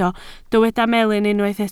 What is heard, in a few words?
"melyn", Welsh